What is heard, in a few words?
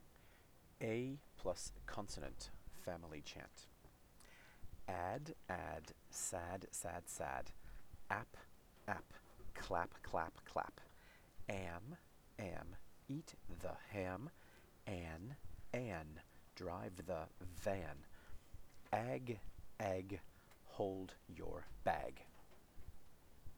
a_ family chant